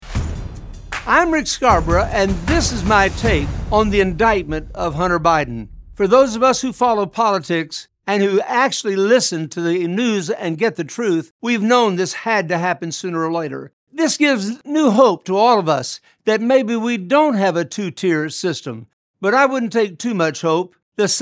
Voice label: Radio Spot; Hunter Biden